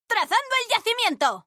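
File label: European Spanish; 探奇（守望先锋2）; 终极技能; ¡Trazando el yacimient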